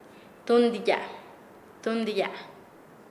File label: Nasa Yuwe; amarrar; paez